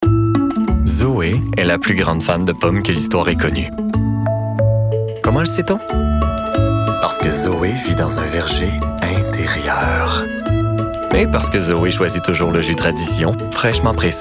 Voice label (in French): fr qc naturel corpo amical avec sourire doux rassurnat sobre adu; jus tradition; adulte grave